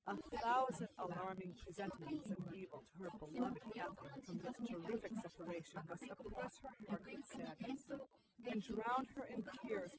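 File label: female instantaneous source; SiSEC08; SiSEC underdetermined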